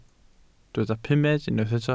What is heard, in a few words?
"pumed", welsh